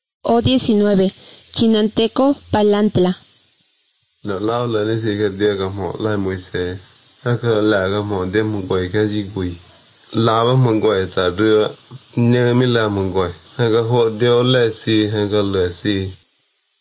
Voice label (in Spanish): spanish mexico